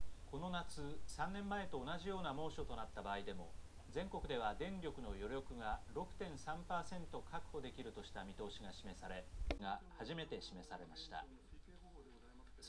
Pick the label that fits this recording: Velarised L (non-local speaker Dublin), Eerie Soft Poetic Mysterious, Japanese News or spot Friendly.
Japanese News